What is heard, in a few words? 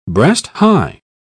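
"breast high", British English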